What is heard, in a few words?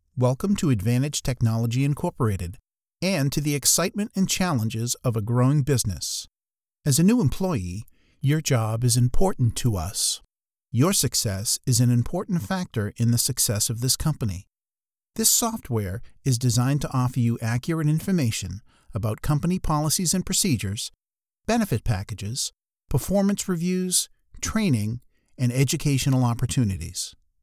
Corporate